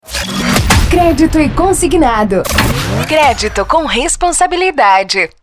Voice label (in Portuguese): Vinheta Produzida